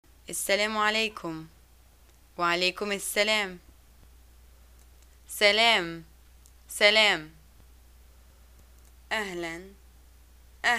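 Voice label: Egyptian greeting hi and response, arabic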